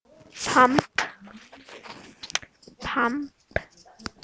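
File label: "pump", spoken word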